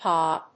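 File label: "hah", English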